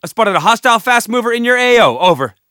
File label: spot; bf3